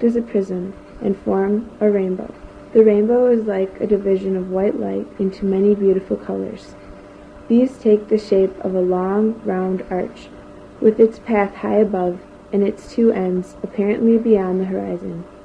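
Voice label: wisconsin, dialects of English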